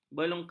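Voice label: "belungkang", Malay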